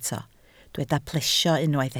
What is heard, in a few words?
"plesio", Welsh